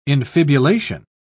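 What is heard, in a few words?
"infibulation", British English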